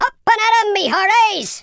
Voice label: "come on then", Pirate